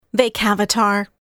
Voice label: Female